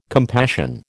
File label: "compassion", American